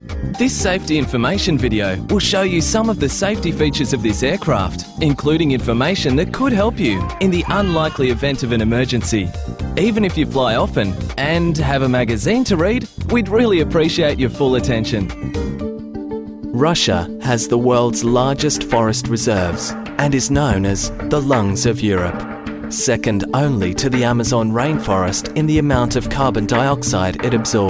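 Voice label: English Australian, narration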